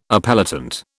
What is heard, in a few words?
"appellant", British